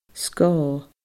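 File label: "sgòth", Scottish Gaelic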